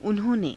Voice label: "unho~ne", hindi